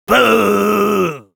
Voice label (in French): Engineer jeers, fr